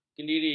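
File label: "kendiri", Malay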